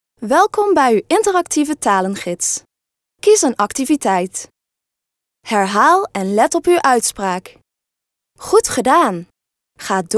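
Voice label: Dutch female corporate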